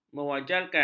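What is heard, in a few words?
"mewajarkan", Malay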